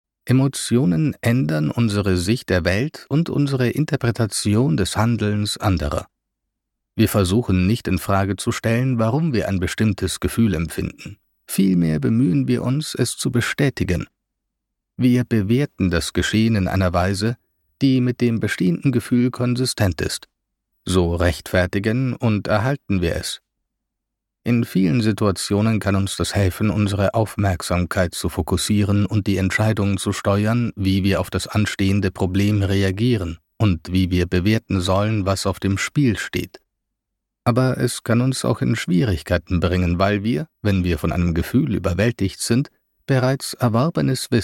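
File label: German Audiobook Male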